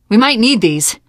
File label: take pills, survivor voice teen girl; source engine; left 4 dead 2